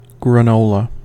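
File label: "granola", US English; pronunciations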